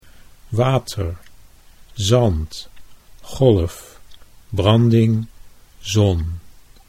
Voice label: "water zand", Dutch

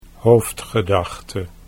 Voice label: "hoofdgedachte", Dutch

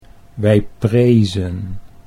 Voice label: wij prezen, Dutch

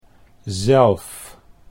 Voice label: "zelf", Dutch

